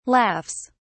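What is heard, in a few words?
"laughs", British English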